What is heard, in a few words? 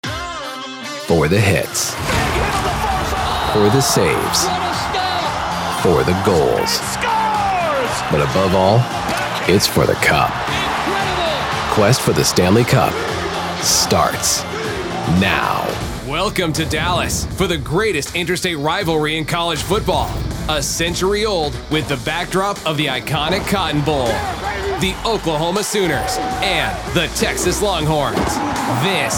Sports Opener